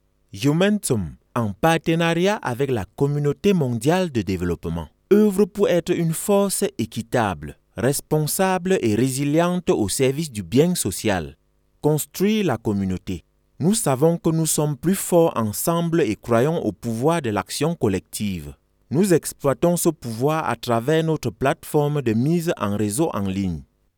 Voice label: Commercial in French, voice